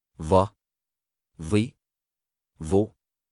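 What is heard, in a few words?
"Dha Dhi Dhu", Arabic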